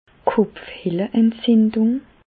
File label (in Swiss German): "kopfhehleentzindung", Bas Rhin; medecine; sinusite